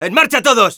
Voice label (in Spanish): Scout move up es